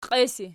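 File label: "qies" ergative, Archi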